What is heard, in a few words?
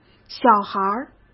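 "小孩儿", Mandarin Chinese; chinese-flashcards; hsk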